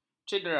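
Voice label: "cedera", Malay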